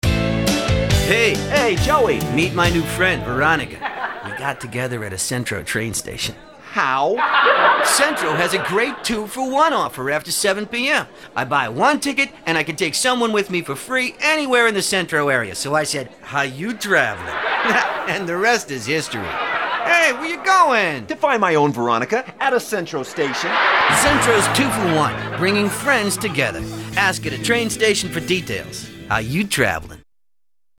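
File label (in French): spot, spots radio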